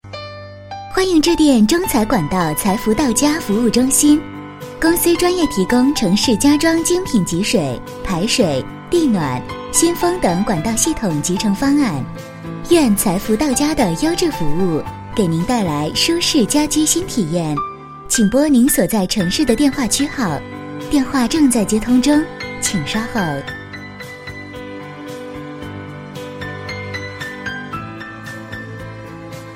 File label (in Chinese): 女 样音